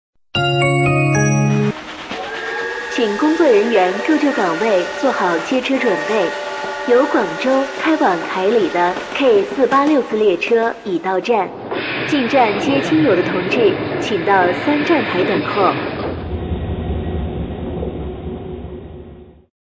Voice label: 女38号解说 列车报站效果

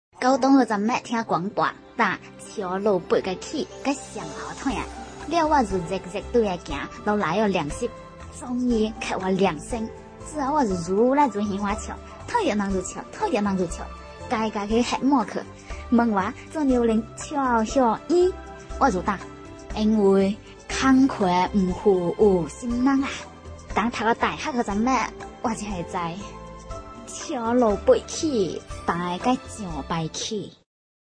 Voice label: 女 方言 潮汕话

